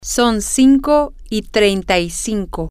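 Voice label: its 535, spanish, female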